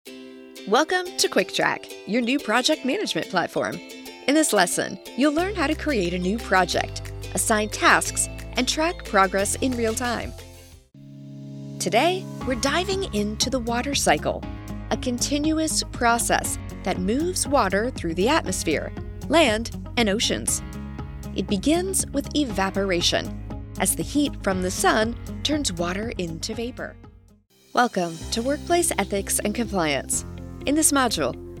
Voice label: eLearning